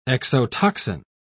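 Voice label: "exotoxin", British English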